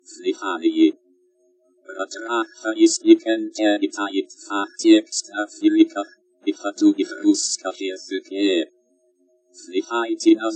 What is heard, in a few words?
espeak ng ru test